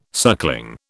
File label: "suckling", British